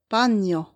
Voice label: "panjo", Esperanto; vortoj